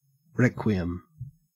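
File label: "requiem", Australian English; pronunciations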